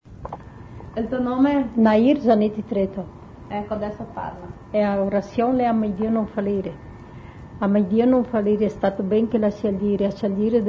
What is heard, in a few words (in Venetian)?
Orassion, Talian; Orassion a me Dio no falire